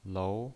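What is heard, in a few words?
"lou", pinyin, Mandarin Chinese, second tone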